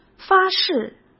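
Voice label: "发誓" Mandarin Chinese; hsk; chinese-flashcards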